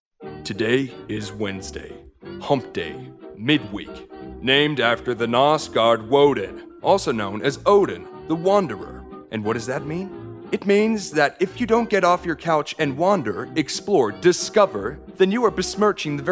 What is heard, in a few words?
Voice Over